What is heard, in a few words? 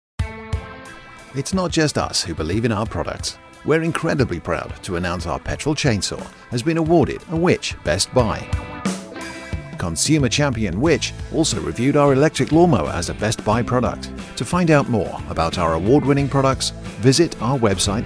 On hold messages